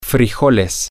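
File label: beans, Spanish, male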